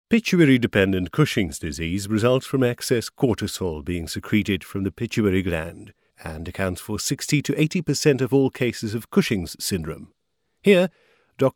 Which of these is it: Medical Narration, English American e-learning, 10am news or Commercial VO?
Medical Narration